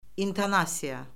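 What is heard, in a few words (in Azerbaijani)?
"intonasiya", Azerbaijani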